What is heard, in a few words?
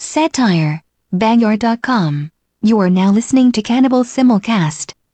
airplane voice